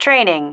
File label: "training", female